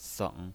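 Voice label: "seng", Mandarin Chinese pinyin voice, third tone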